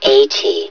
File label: "eighty", hev suit; Half Life Alyx